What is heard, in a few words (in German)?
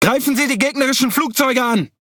Speech Quick Commands Enemy Planes, DE; World Of Warships